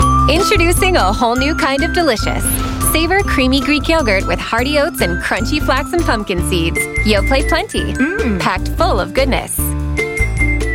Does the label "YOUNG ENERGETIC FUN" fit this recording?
Yes